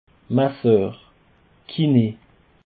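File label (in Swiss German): "masseur", Alsatian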